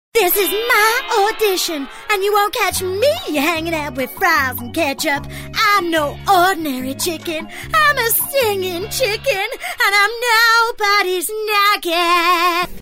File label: southern usa